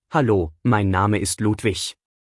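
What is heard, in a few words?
"ludwig", German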